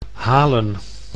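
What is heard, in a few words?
"Haelen", Dutch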